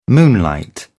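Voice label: "moonlight", British English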